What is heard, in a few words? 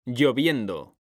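"lloviendo", Spanish, male; mekanta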